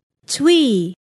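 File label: "twee", English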